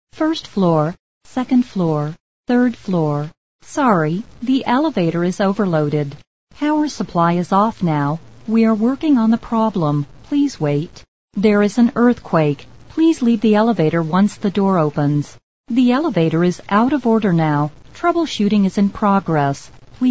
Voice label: Elevator en; 电梯语音提示英文; 自动化电梯